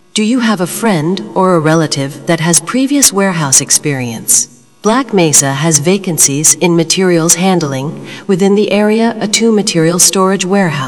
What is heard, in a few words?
tride announcement